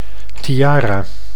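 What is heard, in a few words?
"tiara", Dutch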